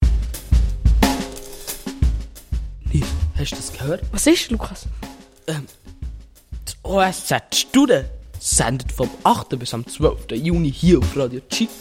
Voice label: Ankuendigungsjingle; Studen; Projektwoche

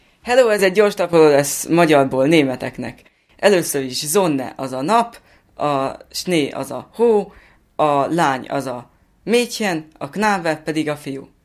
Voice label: übersetzt ungarisch deutsch; JUSKILA 2014

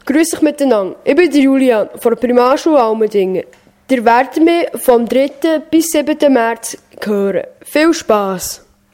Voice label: Ankündigungsjingle

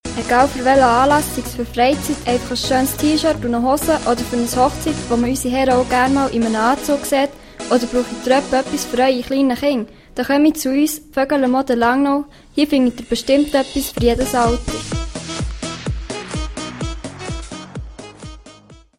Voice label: Werbespot; vögele moden

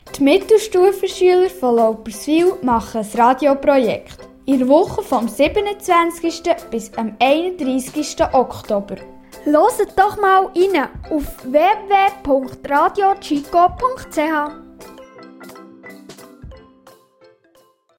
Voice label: Werbung

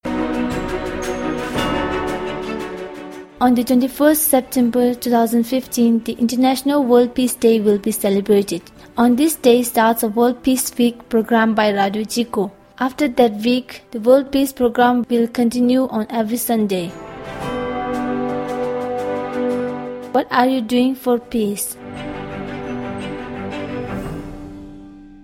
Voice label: Jingle Englisch; Weltfriedenswoche Englisch

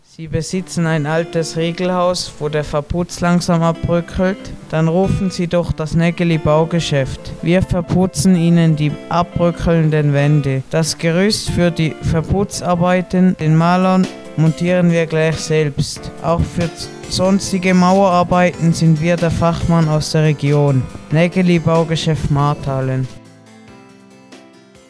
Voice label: Werbung; Nägeli Baugeschäft; Marthalen

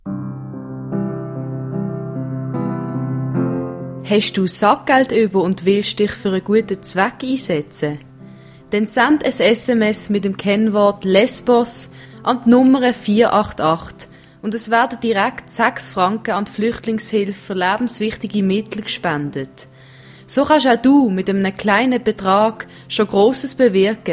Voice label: Jingle Fluechtlinge

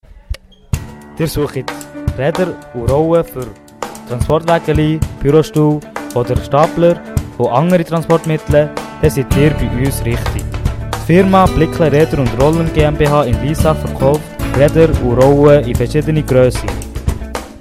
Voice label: Jingle; Blickle Räder + Rollen GmbH

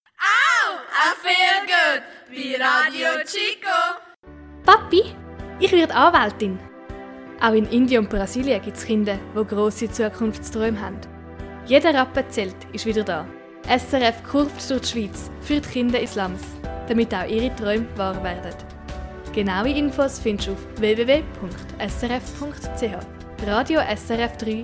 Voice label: Jingle; Glückskette

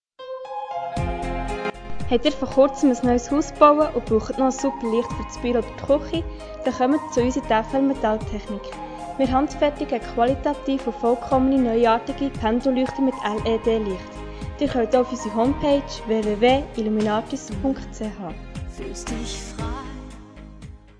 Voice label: Jingle; FL Metalltechnik AG

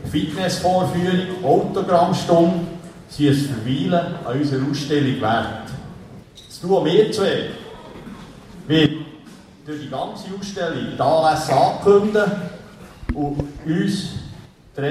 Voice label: Ansage Festprogramm

